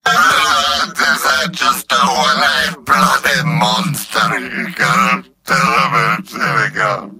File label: gibberish